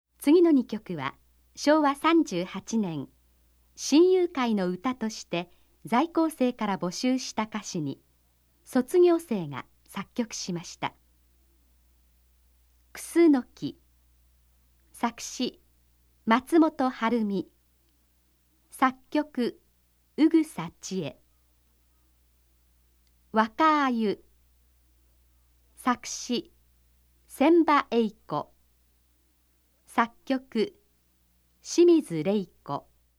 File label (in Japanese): ナレーション